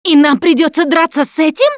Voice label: heard tank, russian; Voice; Producer